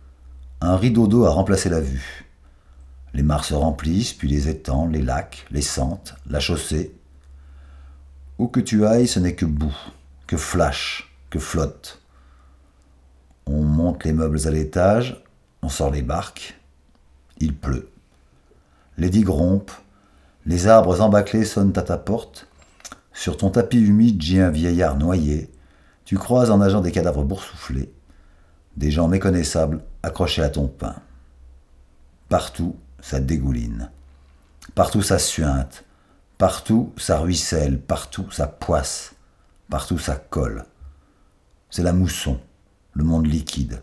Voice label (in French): pluies, lectures audio